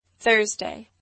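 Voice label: "Thursday", English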